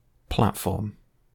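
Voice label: "platform", British English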